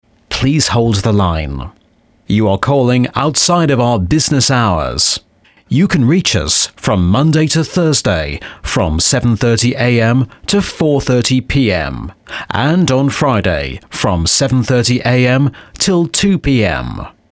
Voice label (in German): telefon